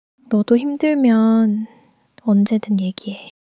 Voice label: sadness

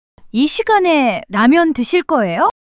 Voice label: surprise, monologue

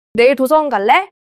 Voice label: neutral, monologue

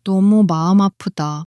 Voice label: sadness, monologue

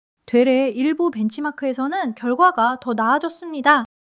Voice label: joy

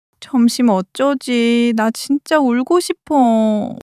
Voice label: sadness, monologue

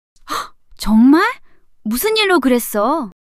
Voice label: surprise, monologue

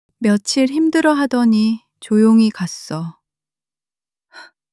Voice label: crying, monologue

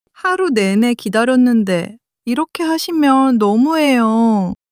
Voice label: sadness; monologue

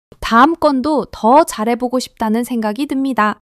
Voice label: excitement

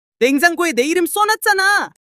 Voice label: anger; monologue

